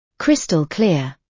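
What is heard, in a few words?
"crystal clear", British English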